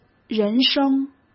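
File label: "人生", Mandarin Chinese; chinese-flashcards; hsk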